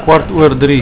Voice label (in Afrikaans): "kwart oor drie", Afrikaans